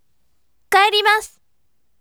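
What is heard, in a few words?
voice, bright; kaerimasu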